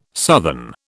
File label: "southern", British